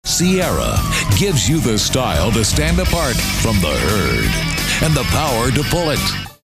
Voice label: tough gritt

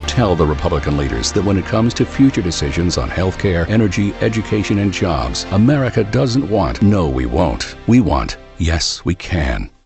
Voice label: political authoritative tough and gritty

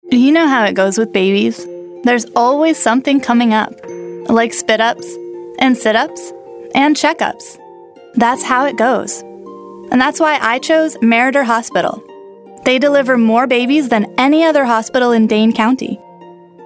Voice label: warm

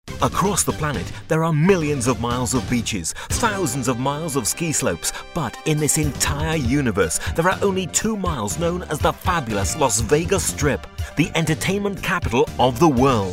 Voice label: high energy

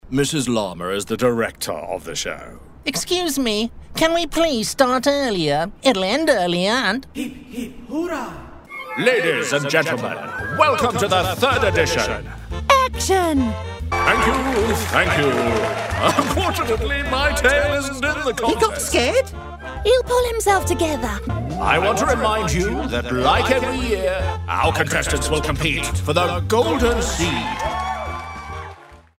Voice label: Character Voices

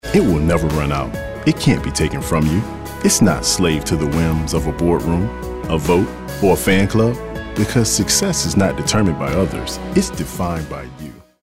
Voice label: motivational

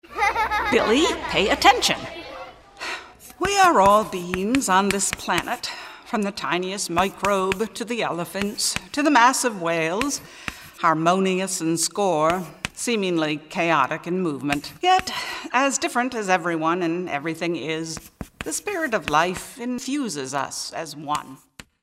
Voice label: real midlife

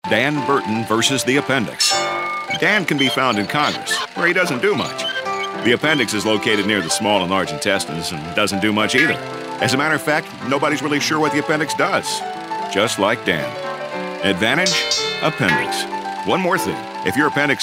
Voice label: political humorous retro

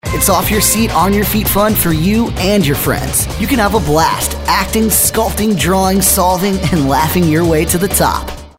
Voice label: High Energy

